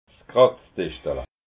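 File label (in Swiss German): "kratzdischtala", Alsatian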